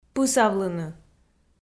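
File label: "пысавлыны", Komi, female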